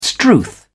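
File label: "strewth", English, British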